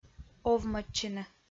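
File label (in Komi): "овмӧдчыны", Komi, female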